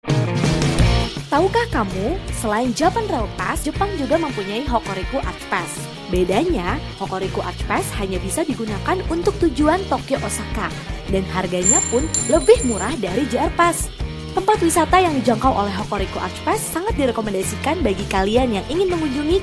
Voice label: Indonesian